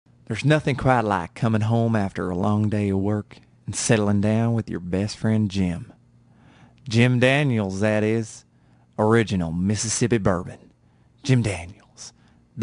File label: southern USA accent commercial; Jim Daniel's